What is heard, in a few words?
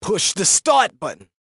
"Push The Start Button", announcer; title menu attract